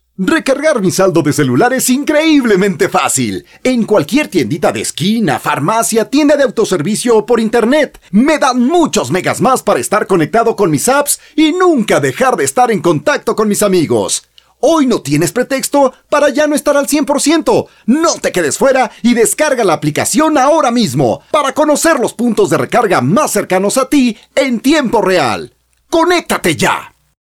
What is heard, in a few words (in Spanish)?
locucion tono juvenil vendedor locutor sin musica